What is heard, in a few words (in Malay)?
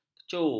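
"kecu", Malay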